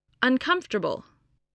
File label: "uncomfortable", English spelling voice